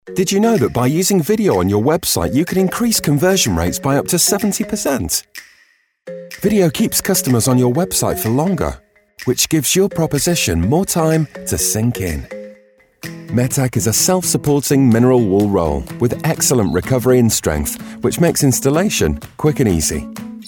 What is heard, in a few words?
UK